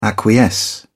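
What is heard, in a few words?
"acquiesce", British English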